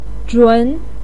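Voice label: "zhun" second tone, Mandarin Chinese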